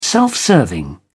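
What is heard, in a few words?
"self-serving", British English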